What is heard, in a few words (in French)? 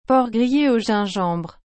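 "porc grille au gingembre", French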